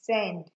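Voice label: "send", Nigerian Pidgin, female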